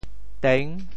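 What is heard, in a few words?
"teng6", Teochew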